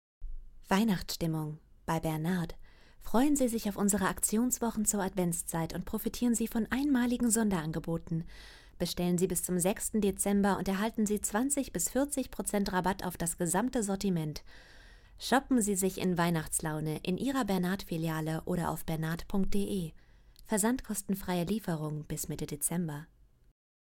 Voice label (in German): WERBETEXT